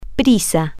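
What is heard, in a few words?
Spanish, female; in A Hurry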